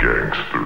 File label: Gang Vox; Jungle; Vocals